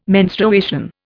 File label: "menstruation", Indian